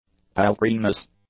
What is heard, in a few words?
"paltriness", English